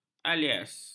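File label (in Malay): "alias", Malay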